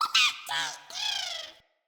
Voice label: Sprout Vocal Surprised; Friendly; Sproutfolk; Fey Hub Sprout